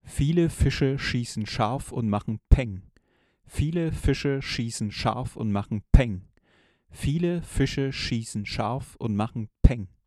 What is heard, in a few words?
shure ksm8 plosive sibilanten